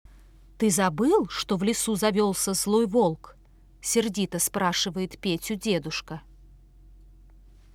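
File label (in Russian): kommentarij, commentary; vozvrashhenie v sad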